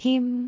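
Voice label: "him1", Cantonese; amazonHiuJin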